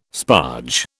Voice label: "sparge", British